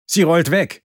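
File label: cart going back defense, German; Sniper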